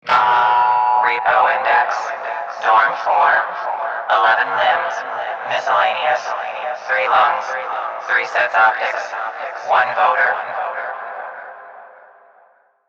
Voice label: PA System, en; Dialog; Warframe; Solaris Venus

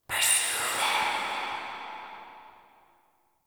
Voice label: Whispers; Cave; Ambience; Orokin; Warframe; Stalker

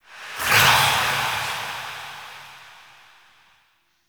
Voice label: Whisper, KRA; Kuva Lich; Requiem Mod

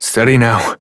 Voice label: Horse Calm Adult; Duviri

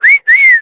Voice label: Horse Stop Adult; Duviri

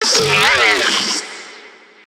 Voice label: Necramech ranged pain; Battle Barks

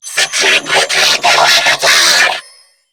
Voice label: Attack Grineer Female; New War; Narmer

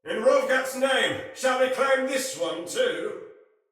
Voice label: See Player Shout, Soldier Male; Sinecure Soldier Male A

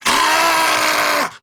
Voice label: Attack Grineer Ghoul Sargeant, en

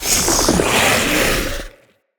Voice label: Corrupted Sproutfolk Attack Swing, Vocals; Enemy; Characters

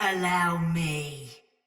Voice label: Attack Takeover Soldier Mace Agent Female; Battle; Sinecure Melee

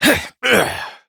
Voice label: Horse Mount Adult; Duviri

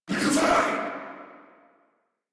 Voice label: Player Spotted, Battle Barks

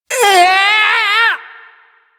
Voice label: Ability Throw, Barks; Warframe; Corpus Lich; Persona A

